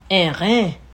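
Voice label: "Enren", French Guianese Creole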